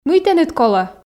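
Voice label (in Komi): мый тэныд колӧ, Komi, female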